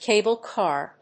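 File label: "cable car", English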